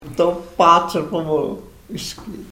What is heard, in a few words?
karuk